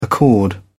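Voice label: "accord", British English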